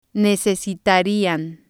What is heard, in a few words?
"necesitarian", spanish